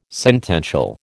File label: "sentential", American